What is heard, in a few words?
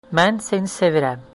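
I love you, Azerbaijani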